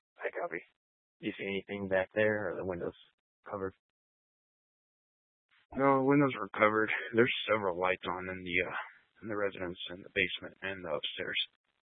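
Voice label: Sheriff Dispatch, scanner audio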